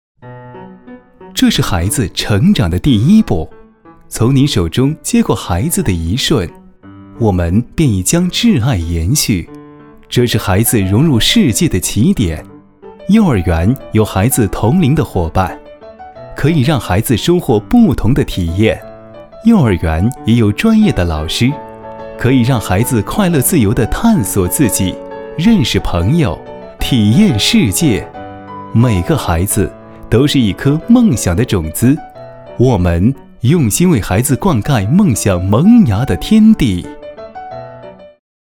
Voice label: 男 专题 幼儿园

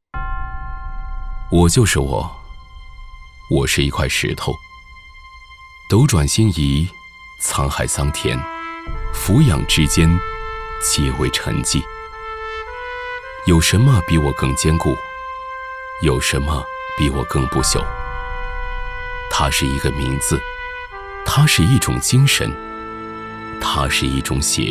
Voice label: 男 党政走心

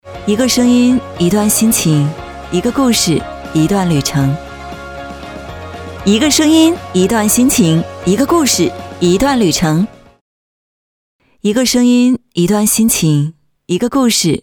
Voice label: 女 独白; 一个声音

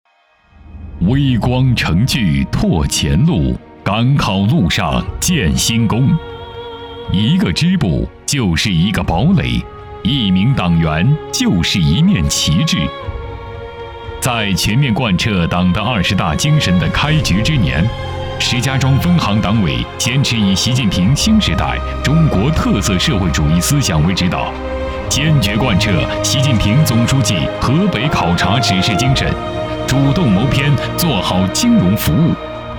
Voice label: 男 纪录片; 先锋

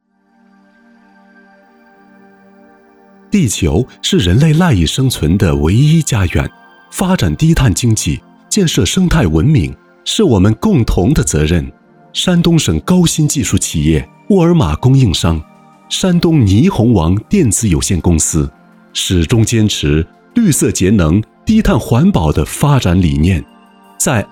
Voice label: 男 宣传片 企业 科技

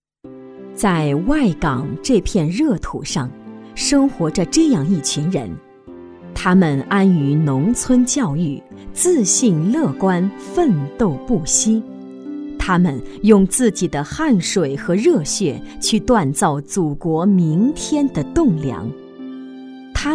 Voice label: 女-感情旁白-教师节

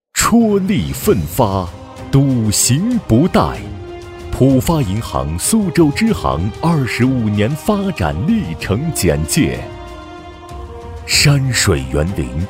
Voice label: 男 宣传片; 浦发银行

